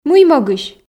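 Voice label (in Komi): "мый могысь", Komi, female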